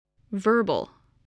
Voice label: "verbal", English